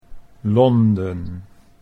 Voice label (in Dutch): "Londen", Dutch